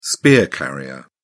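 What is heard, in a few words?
"spear carrier", British English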